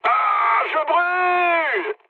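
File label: French on fire mood high; War Thunder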